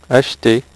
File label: "acheter", French; HyperSound™2